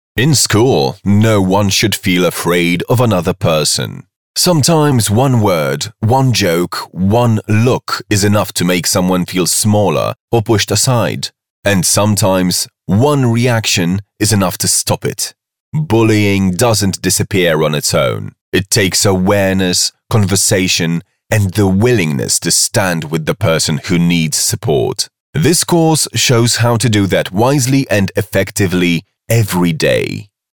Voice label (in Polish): prezentacja ENG